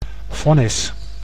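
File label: "vonnis", Dutch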